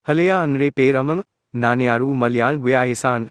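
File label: Indian Malayalam; iOS 26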